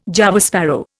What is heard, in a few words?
"Java sparrow", Indian